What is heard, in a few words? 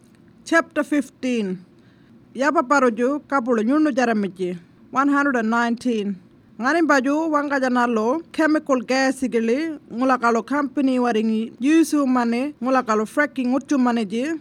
Warlpiri; Fracking